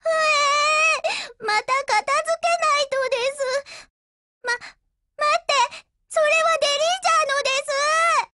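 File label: BREAK Japanese, Voice; GF Alarm; Derringer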